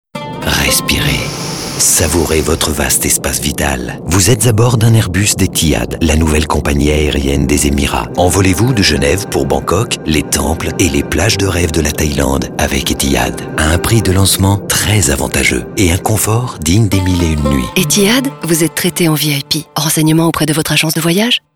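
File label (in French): spot, spots radio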